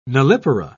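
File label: "nullipara", British English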